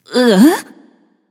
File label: confused; smite; voicepack; izanami